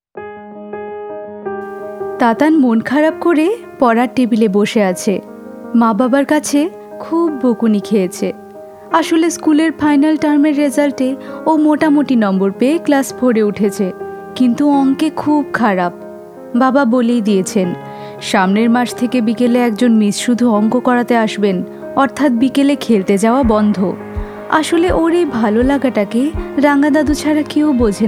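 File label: STORY KIDS BENGALI, Female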